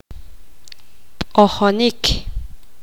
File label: poqomam; coser